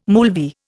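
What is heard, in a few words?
"moolvi", Indian English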